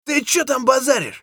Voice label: scav battlecry; eft